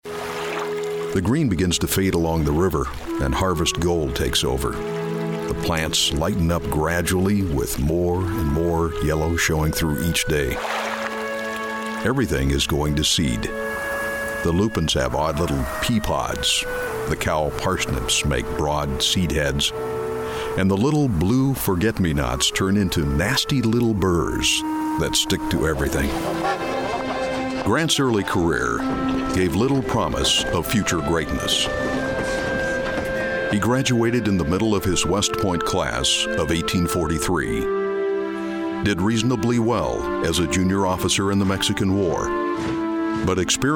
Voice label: Narration Male